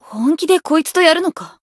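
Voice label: encount fear, Japanese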